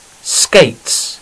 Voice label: "skates", English